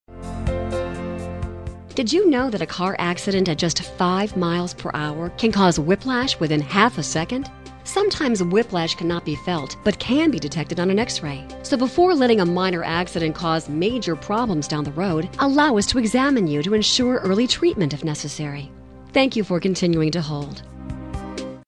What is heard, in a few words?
on hold message; medical dental; Symons Chiropractic